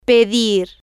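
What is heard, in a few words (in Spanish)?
"pedir", Spanish, female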